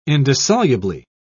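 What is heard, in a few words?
"indissolubly", British English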